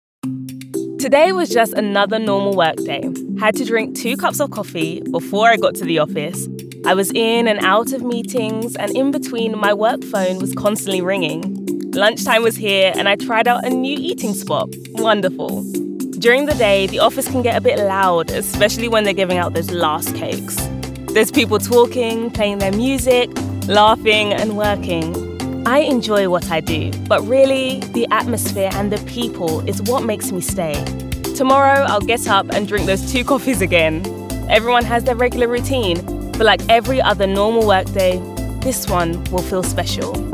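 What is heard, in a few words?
British English narrative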